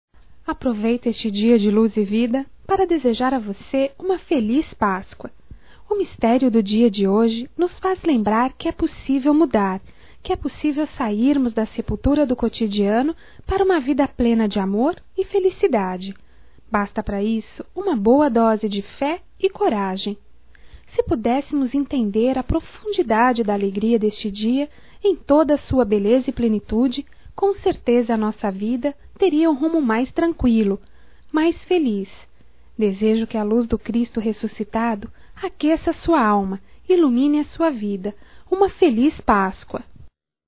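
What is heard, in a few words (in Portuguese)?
PASCOA NEUTRA, female, Telemensagens, Só Voz; Telemensagens 2017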